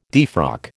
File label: "defrock", American